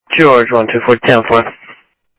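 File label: Sheriff East County, scanner audio trunk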